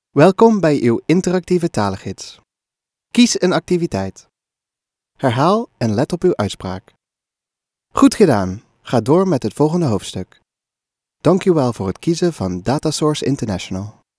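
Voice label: Dutch male corporate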